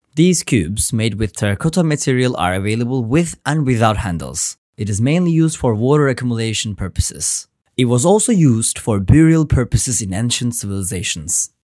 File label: english